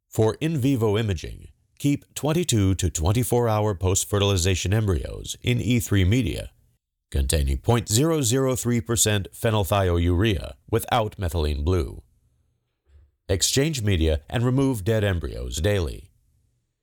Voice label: Voiceover